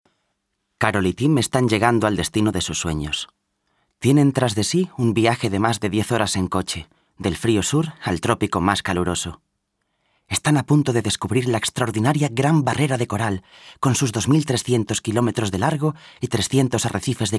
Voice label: Castilian Spanish; VOICES